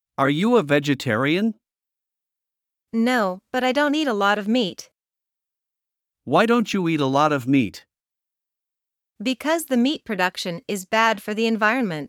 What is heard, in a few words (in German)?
Dialog; Fleischkonsum